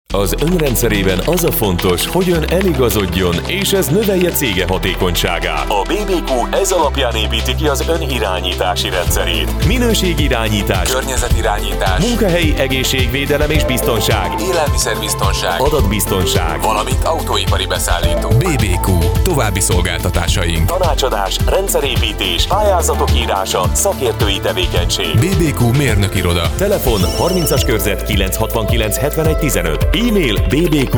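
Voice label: Hungarian, voiceovers other languages; foreign languages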